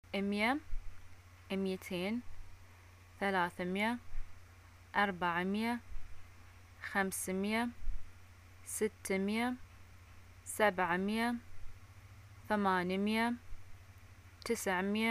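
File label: numbers 1 10, Bahraini arabic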